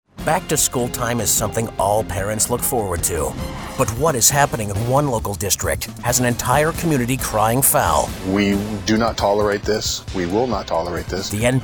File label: tv affiliate topical